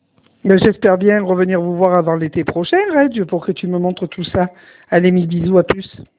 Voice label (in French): Message vocal